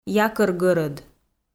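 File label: "якӧр гӧрӧд", Komi, female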